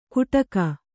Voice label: "ಕುಟಕ", Kannada

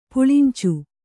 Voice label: "ಪುಳಿಂಚು", Kannada

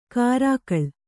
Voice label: "ಕರ್ಕಳ", Kannada

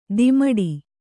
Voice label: "ದಿಮಡಿ", kannada

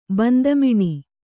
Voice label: "ಬಂದಮಿಣಿ", Kannada

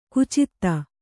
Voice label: "ಖಚಿತ", Kannada

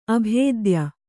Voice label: "ಅಭಿಧಾಯಿ", Kannada

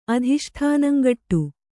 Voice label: "ಅಧಿಷ್ಠಾನಂಗಟ್ಟು", Kannada

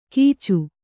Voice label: "ಗೀಚು", Kannada

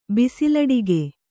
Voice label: "ಬಿಸಲಡಿಗೆ", Kannada

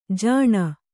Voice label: "ಜಾನು", kannada

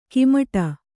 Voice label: "ಕುಮುಟು", Kannada

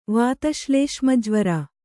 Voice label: "ವಾತಶ್ಲೇಷ್ಮಜ್ವರ", Kannada

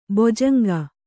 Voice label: "ಬೊಜಂಗ", Kannada

